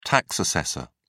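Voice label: "tax assessor", British English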